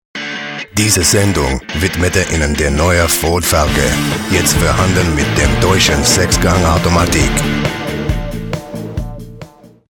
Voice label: german